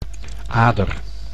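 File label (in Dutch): "ader", Dutch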